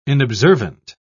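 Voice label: "inobservant", British English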